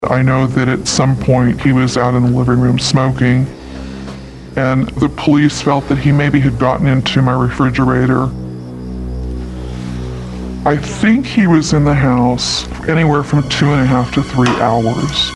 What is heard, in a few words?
vocal shift